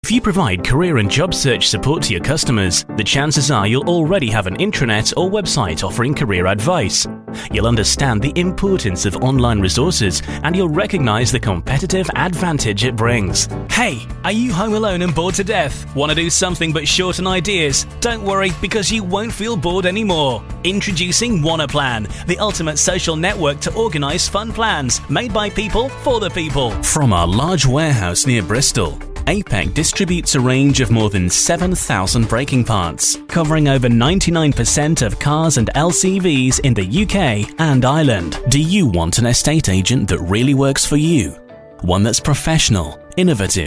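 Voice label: English UK, Kommentar Variabel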